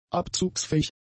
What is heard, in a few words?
"abzugsfähig", german; adjectives